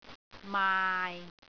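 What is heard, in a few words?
"maai6", Cantonese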